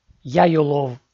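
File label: "яйулов", Komi, male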